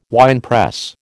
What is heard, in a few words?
"winepress", American